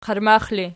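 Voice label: "qarmax" ergative, Archi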